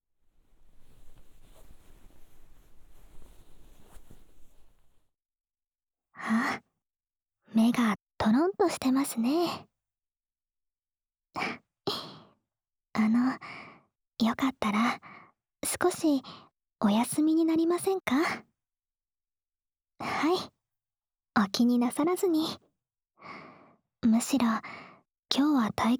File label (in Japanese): 特別な子守唄 ～百人一首ASMR～